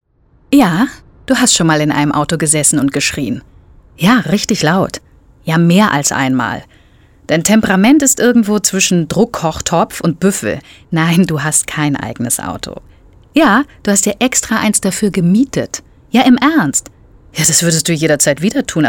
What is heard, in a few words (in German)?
Telefonat